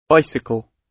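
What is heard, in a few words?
"bicycle", British English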